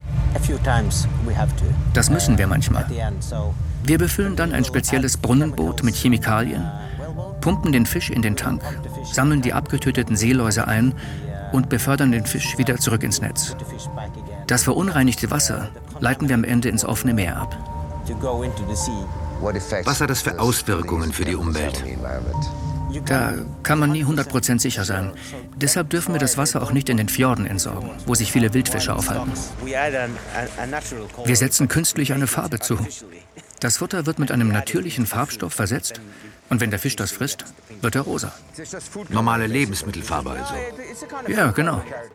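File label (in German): VoiceOver, Sprecher, Männer; Im Einsatz für Lachse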